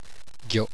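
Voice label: "gyo", Japanese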